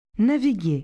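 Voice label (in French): "naviguer", French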